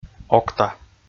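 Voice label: "окта", Komi, male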